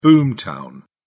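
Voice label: "boom town", British English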